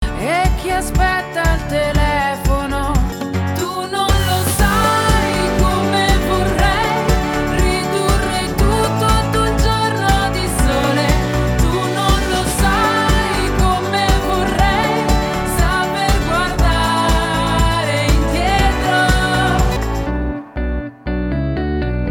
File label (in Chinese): 广告 服装 内衣 甜美; 新美婷内衣